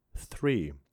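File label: "Three", male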